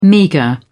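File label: "meagre", British English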